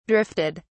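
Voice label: "drifted", British English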